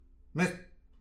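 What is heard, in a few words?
"mestr", Breton; petit precis de prononciation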